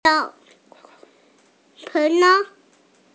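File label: "xia1mi5", Mandarin Chinese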